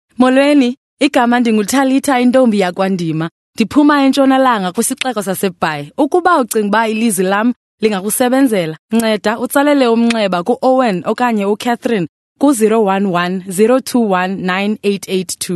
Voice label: Xhosa conversational

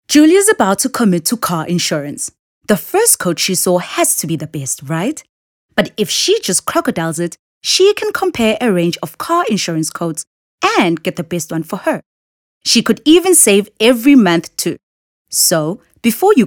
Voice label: English Conversational; OSM Talent

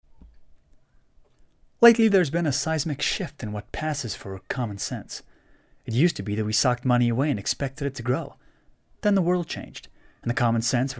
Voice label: American English Conversational

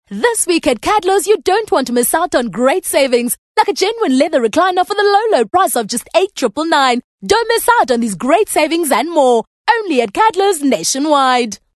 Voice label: English Hardsell

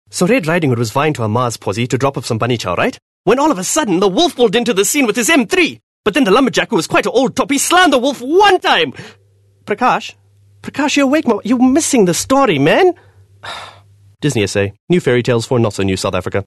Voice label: English Conversational Indian Accent

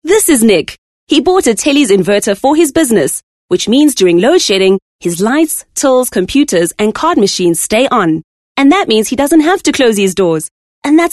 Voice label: English Conversational

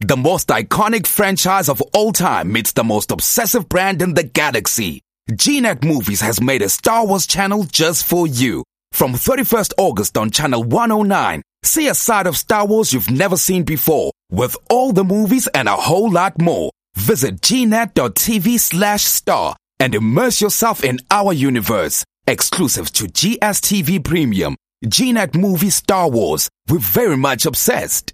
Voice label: English Skill Promo

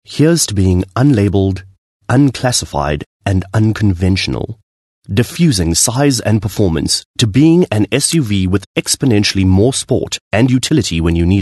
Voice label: English Softsell

